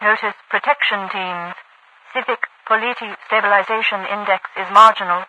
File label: radio voice, overwatch; politi stablization marginal; Garry's Mod; hl2